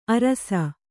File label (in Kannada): "ಆರೈಸು", Kannada